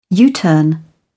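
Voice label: "u turn", British English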